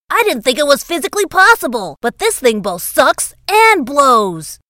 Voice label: Voice Talent Celebrity Voices; Bart Simpson